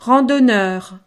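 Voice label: "randonneur", French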